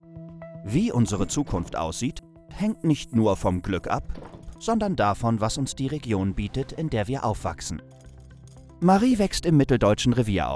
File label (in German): Erklaerfilm werblich; Bismit